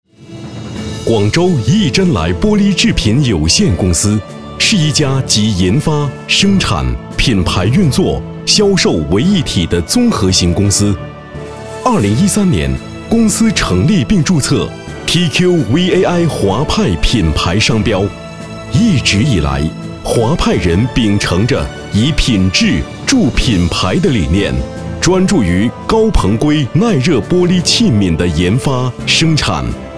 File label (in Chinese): 专题企业介绍